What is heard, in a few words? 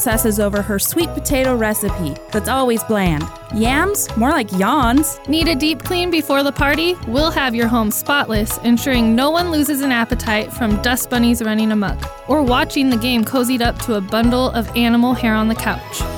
Holiday Message; Scrub-n-Bubbles